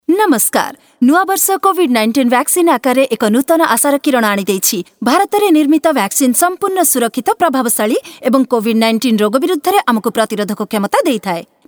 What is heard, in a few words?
Odiya Caller Tune